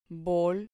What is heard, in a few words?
"bol", Spanish